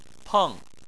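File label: "peng" fourth tone, Chinese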